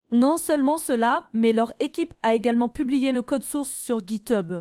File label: French; fish speech